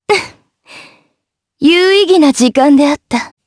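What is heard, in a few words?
Victory, Japanese; kingsraid; jp; Lewsia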